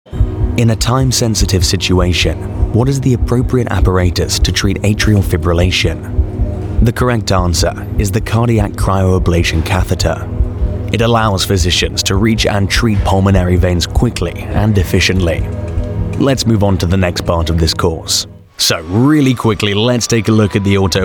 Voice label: UK English Corporate